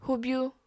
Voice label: "hubyu", apatani

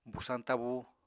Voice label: "busañ tabú", Apatani

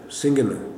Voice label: "tooth", kuman

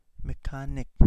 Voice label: Kapingamarangi; emerald tree skink

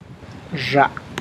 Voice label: "plant", tlacochahuaya

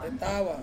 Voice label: "tawa", Ansus; to fall

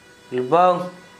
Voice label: "lboŋ", Gta, Dialect: Hill; soaked, soaking wet

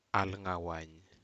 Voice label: Aneityum cross through river

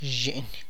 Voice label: "child", tlacochahuaya

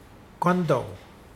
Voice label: ladder, Mokilese

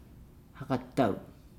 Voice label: "each other", Nukuoro

